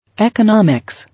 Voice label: "Economics", English